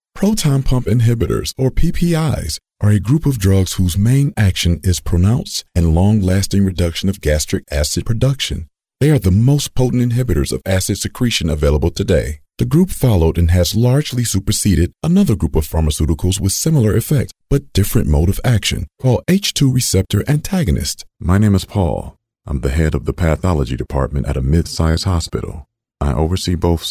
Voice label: english american medical narration

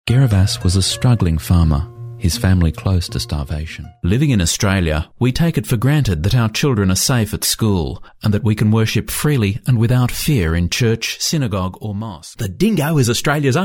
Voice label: english australian narration

